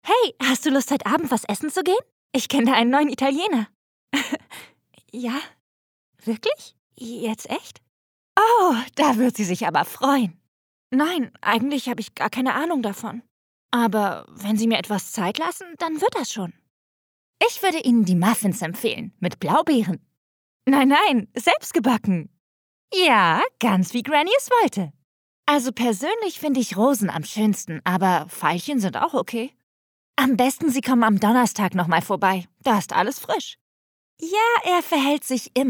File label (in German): Synchron